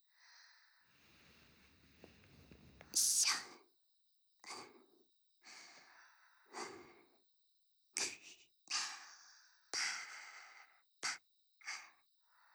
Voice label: 添い寝, ASMR; ねむと一緒に添い寝

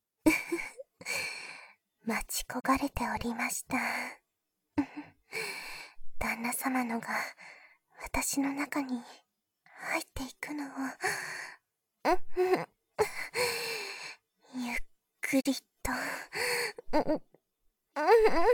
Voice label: 囁き、こっそりお布団の中で, ASMR; 本番

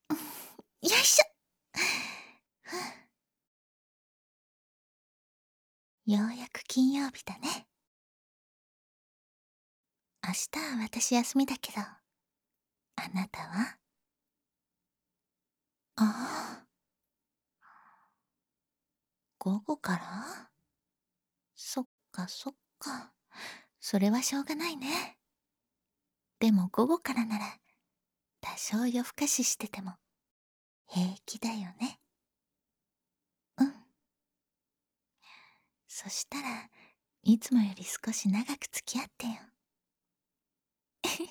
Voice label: 添い寝, ASMR; 添い寝その3; 未編集

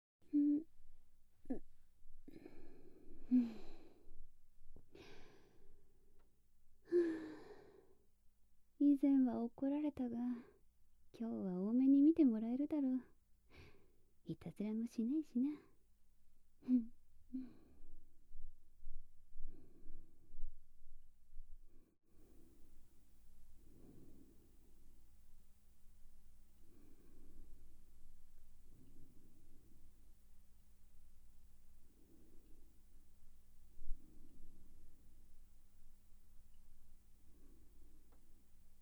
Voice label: 添い寝, ASMR

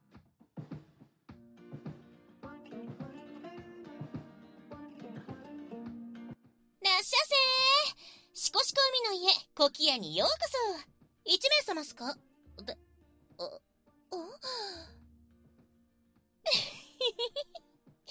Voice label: 入店, ASMR

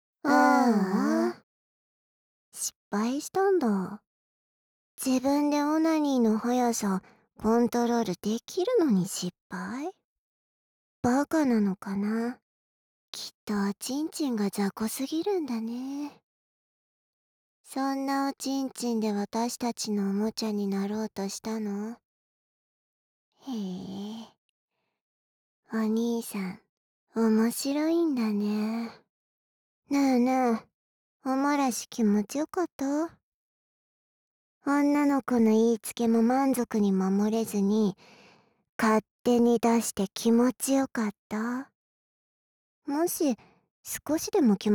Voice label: 失敗ボイス; 意地悪なように感じられる双子の耳舐めオナニーサポート

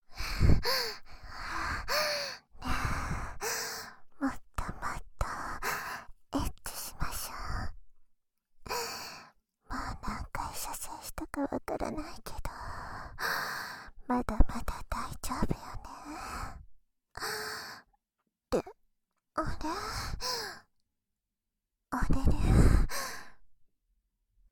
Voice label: （バイノーラルマイク 効果音なし）; エンディング 素にお持ち帰り

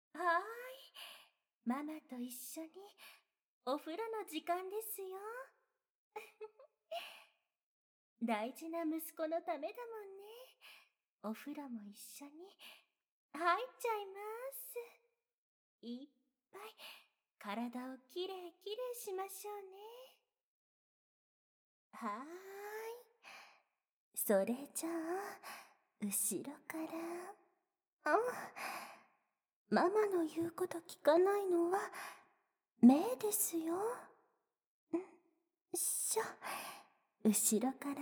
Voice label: ママの耳舐め手コキ(お風呂エフェクトあり 効果音 大); 愛華ママ; 効果音大きめ差分

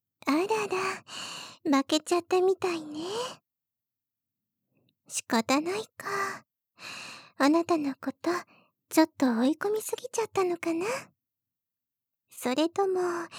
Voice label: 射精ボイス; 天使と悪魔のシコシコ耐久試験; 玄姫屋; 天使の射精ボイス

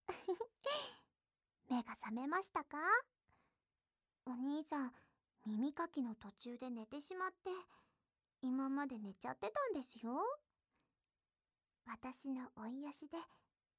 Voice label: 添い寝; あなたが眠るまで

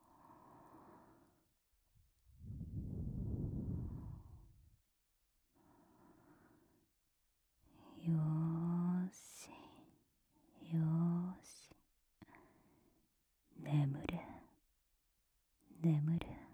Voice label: 添い寝耳キス好き囁き寝かしつけ, ASMR; SE無し

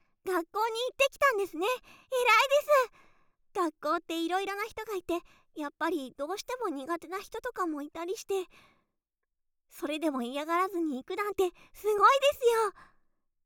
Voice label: 褒め 学校に行ってえらい！

